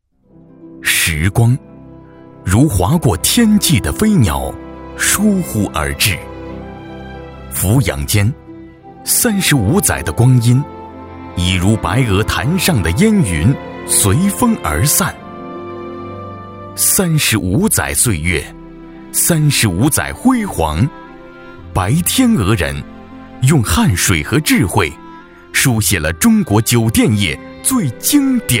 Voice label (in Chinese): 男, 专题, 带干音